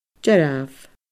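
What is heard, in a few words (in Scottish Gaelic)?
"dearbh", Scottish Gaelic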